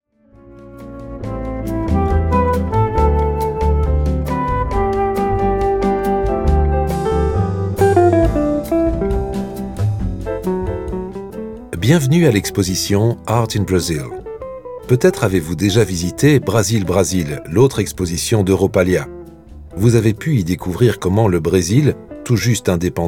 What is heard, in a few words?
Francais; Europalia; Art in Brazil